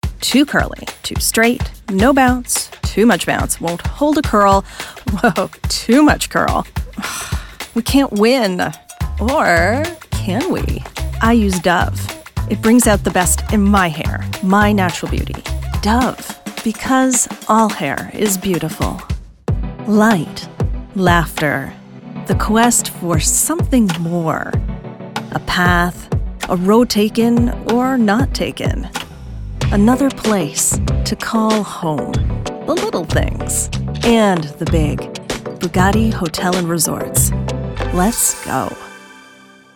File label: Commercial